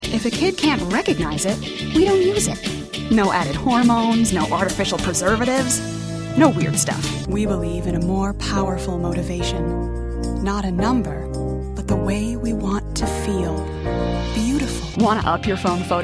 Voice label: Commercial